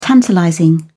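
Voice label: "tantalizing", British English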